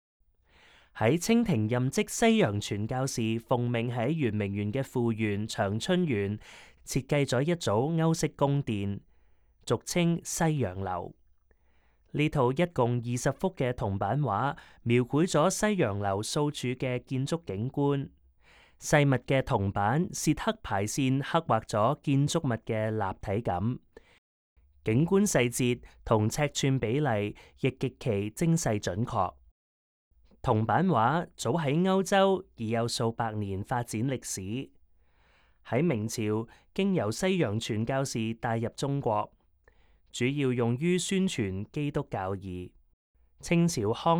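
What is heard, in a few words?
Cantonese, audio guide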